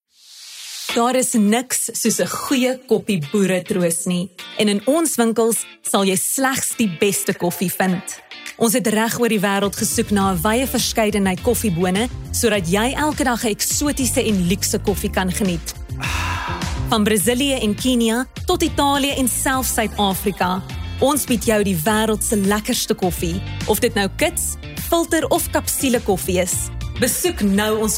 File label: AFR, voice